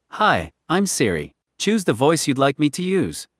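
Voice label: US English; en-US; iOS Sounds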